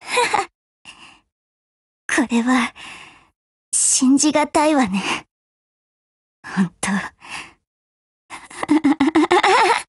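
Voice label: DEAD Japanese, Voice; GF Alarm; Dreamer buhuo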